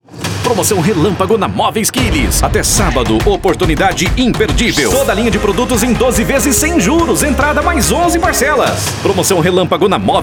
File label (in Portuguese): spot e TV; Móveis Quiles Relâmpago 12X